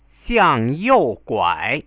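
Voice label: xiangyouguai, Chinese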